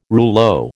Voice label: "rouleau", American